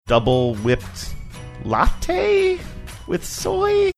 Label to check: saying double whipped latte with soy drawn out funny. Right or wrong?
Right